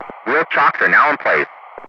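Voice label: "wheel chocks are now placed", English, Ground Crew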